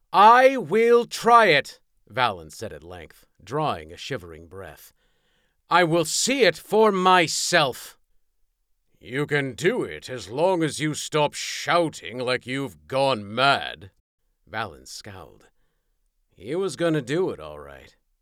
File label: LitRPG, Young Male, British, 3rd Person; audiobook narrator; Undeadm System